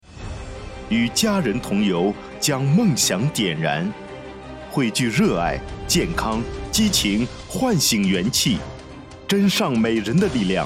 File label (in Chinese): 活动预热宣传片